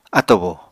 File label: "atobo", Apatani